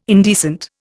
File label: "indecent", Indian